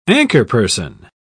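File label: "anchorperson", English, British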